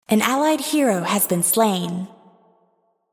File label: Allied Hero Slain, Announcer; Unit Voices